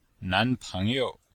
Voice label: "nan2peng2you5", chinois; Cours de Chinois

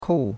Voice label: "kou4", chinois; Cours-de-Chinois

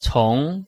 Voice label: "cong2", chinois

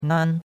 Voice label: "nan1", chinois; Cours de Chinois

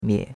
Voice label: "mie3", chinois; Cours-de-Chinois

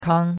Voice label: "kang1", chinois; Cours de Chinois

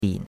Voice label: "bin3", chinois; Cours-de-Chinois

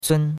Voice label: "cun1", chinois; Cours de Chinois

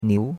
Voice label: "niu2", chinois; Cours-de-Chinois

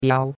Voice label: "biao1", chinois; Cours-de-Chinois

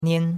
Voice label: "nian1", chinois; Cours-de-Chinois

